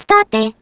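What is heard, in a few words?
Turret pickup, Russian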